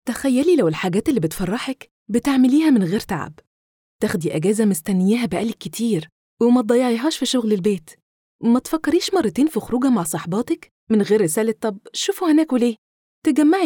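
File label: Arabic female Egyptian dialect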